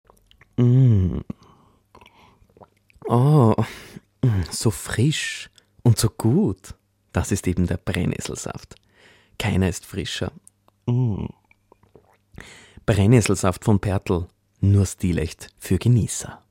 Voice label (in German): werbespot; brennesselsaft